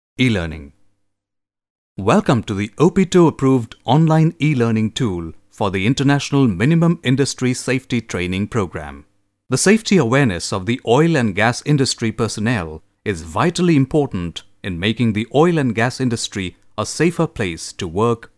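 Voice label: English Indian Male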